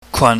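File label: "kwan", Cantonese, low falling tone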